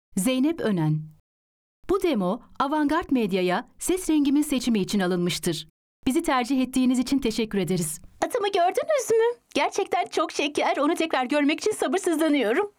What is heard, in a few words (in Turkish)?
animasyon